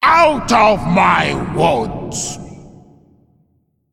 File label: Female Ancient Attack